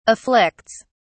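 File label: "afflicts", English, uk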